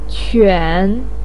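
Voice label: "quan3", Mandarin Chinese, pinyin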